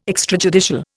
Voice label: "extrajudicial", Indian

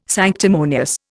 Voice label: "sanctimonious", Indian

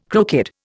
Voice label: "crooked", Indian

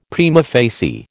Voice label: "prima facie", American

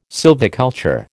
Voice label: "sylviculture", American

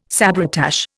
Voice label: "sabretache", Indian

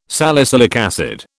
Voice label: "salicylic acid", British

